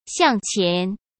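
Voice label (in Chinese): "向前", Mandarin; chinese-flashcards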